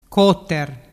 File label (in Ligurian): "coter", dialettu